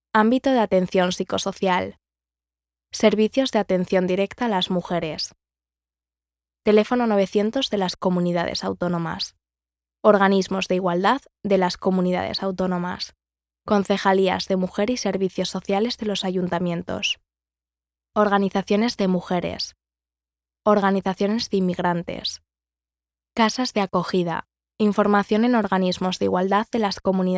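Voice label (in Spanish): generico España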